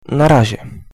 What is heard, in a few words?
goodbye, polish